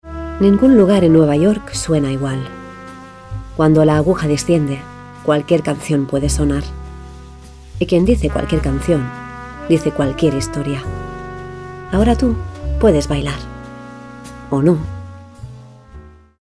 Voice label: female spanish narrative comercial